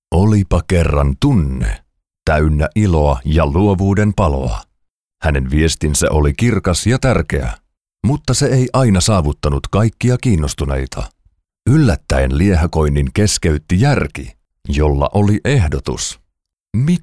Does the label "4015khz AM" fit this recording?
No